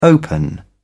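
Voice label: "open up", British English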